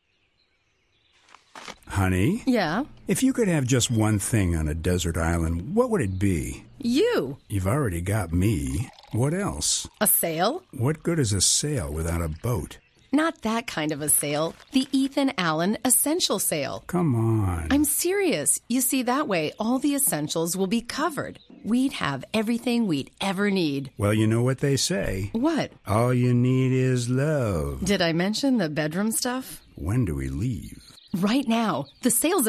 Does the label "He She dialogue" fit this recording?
Yes